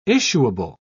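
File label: "issuable", British English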